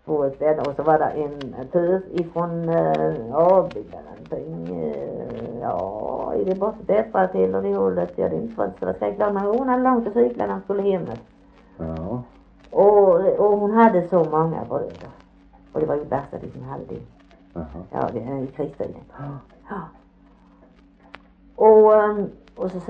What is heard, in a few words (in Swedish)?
Harplinge, dialekter; svenska sagor; Folkminnen